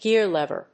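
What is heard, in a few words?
"gear lever", English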